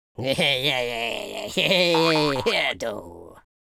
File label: taunt, speech Super Villain